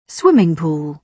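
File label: "swimming pool", British English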